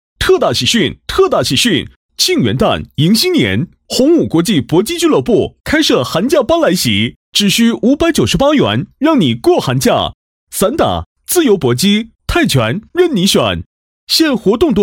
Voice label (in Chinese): 男 促销; 宏武国际搏击